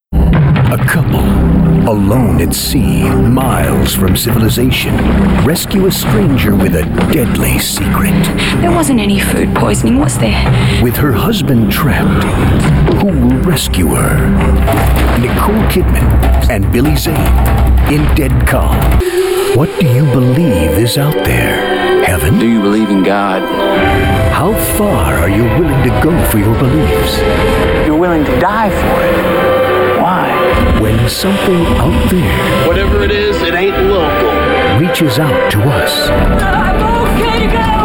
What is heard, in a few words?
MOVIE TRAILER